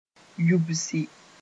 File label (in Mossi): "yubsi", moore